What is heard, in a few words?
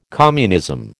"communism", American